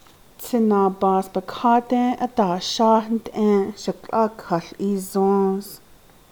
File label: I ripped my skirt as I was getting down from the, Navajo